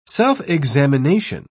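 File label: "self-examination", British English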